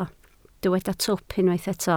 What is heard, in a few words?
"twp", Welsh